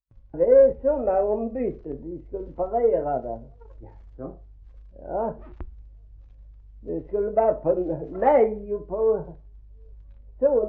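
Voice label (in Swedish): Gråmanstorp Skåne, dialekter svenska sagor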